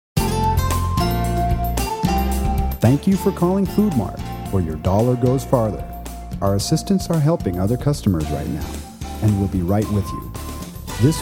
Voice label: Outgoing Message Example; Body Tone Multimedia; Outgoing Message FoodMart